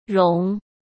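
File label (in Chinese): "融", Mandarin; chinese flashcards; hsk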